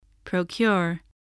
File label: "procure", voice, spelling